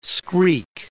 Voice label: "screak", English